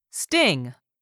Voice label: "sting", English; 3000 words